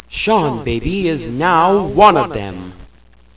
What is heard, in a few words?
NARRATE; ninjacombat